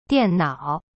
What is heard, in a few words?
"dian4nao3", Chinese; cyberchinese